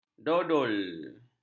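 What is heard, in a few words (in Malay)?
"dodol", Malay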